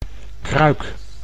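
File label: "kruik", Dutch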